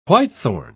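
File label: "whitethorn", British English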